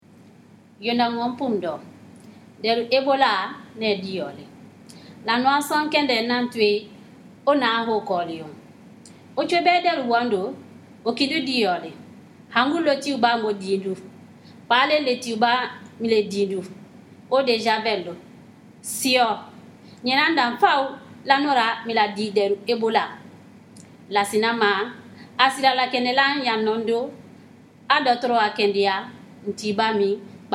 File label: kissi; ebola; radio-spots; vhf